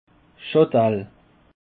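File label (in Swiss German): "chandelle", Alsatian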